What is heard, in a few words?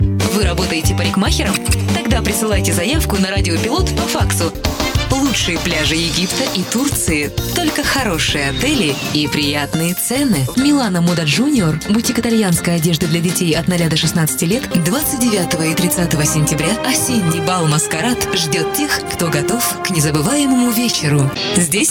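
Russian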